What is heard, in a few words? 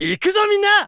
voice sample JP; Fox; SSBU